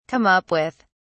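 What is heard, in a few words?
"come up with", British English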